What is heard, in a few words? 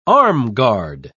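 "armguard", British English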